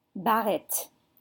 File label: "barrette", French